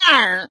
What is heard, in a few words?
Pirate Sad